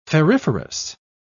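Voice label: "ferriferous", English, British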